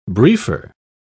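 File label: "briefer", English, British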